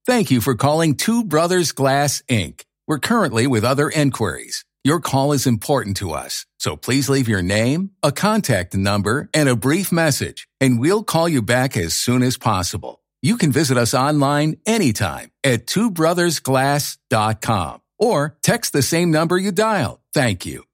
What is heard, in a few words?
Phone Business Voicemail